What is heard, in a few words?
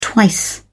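"twice", English, British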